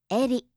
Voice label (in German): "eri", japanische maedchennamen